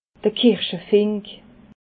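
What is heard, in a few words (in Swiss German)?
"kirschefink", Alsatian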